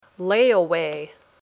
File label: "layaway", English